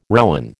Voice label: "rowen", American